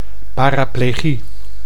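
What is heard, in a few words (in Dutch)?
"paraplegie", Dutch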